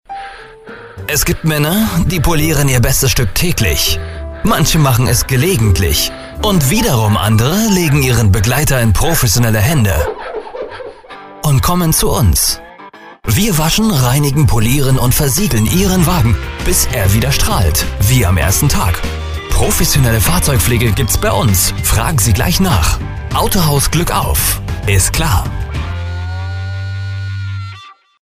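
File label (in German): Werbung Neutral; Fremdtake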